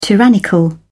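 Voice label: "tyrannical", British English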